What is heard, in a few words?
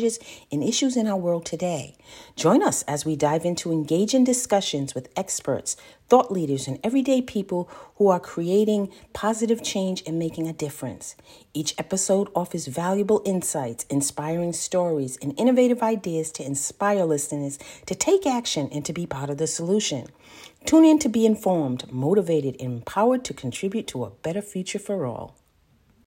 Voice Intro, Podcast